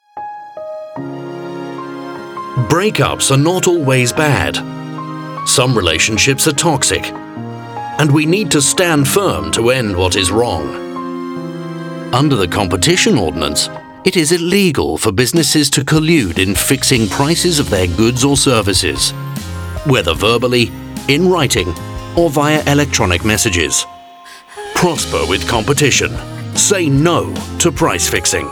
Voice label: Radio; Combat Price Fixing Cartels (Nov 2020)